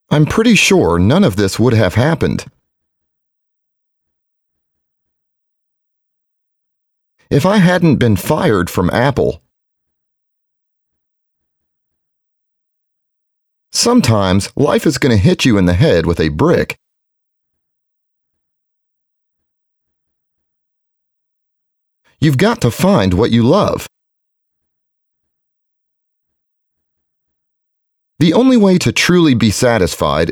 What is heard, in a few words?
Jobs Patterns and Speaking MALE